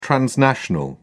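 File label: "transnational", British English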